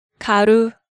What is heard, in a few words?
"garu", Korean